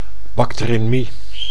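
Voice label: "bacterinmie", Dutch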